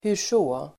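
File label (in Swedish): "hurså", Swedish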